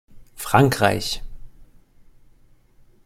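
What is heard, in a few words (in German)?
"frankreich", German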